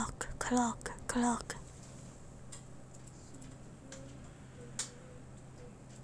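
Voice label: "clock", spoken word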